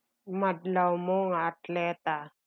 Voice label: Ilocano